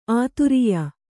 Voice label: "ಆದೊರೆಯ", Kannada